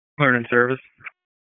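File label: EMS Dispatch, p25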